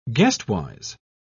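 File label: "guestwise", British English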